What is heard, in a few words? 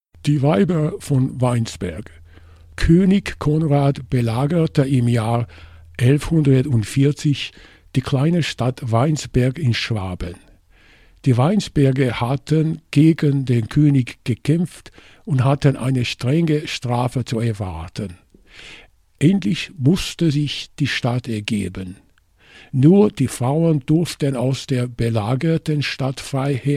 German, Pronunciation Samples